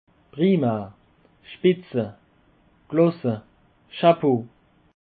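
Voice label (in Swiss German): "prima", Alsatian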